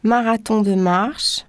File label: french walkathon; samsung YP-U5; Audio User Interface